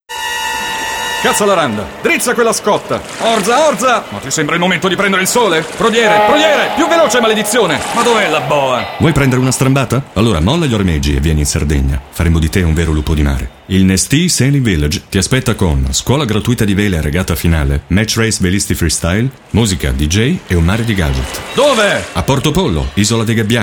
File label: commercial